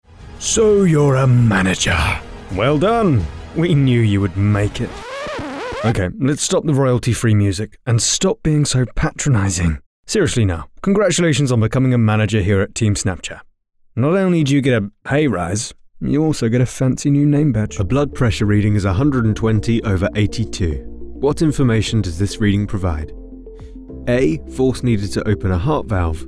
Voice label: ELEARNING